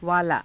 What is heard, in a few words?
"vaalaa", hindi